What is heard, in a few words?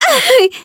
Voice damaged